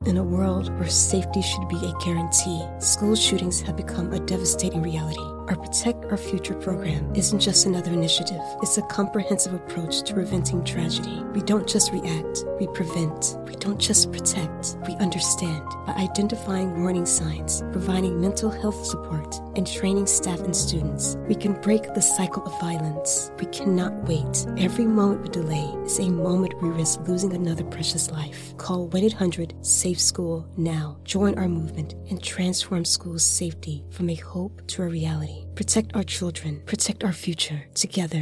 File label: PSA Sensitive Topic; Voice123